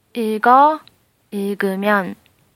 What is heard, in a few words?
read vowel; 읽어 – 읽으면